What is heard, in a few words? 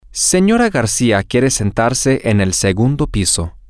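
wants to sit on the second floor, Spanish, female; Mrs Garcia wants to sit on the second floor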